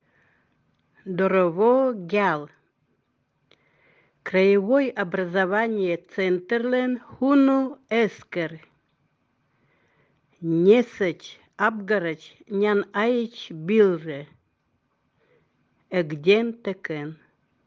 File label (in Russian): Эвенский